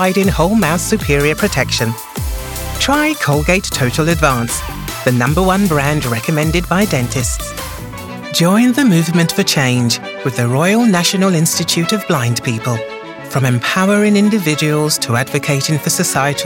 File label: commercial, english female voices british accent